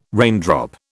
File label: "raindrop", British